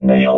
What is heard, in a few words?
"nail", robot